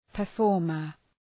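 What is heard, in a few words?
"performer", English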